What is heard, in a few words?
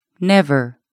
"never", English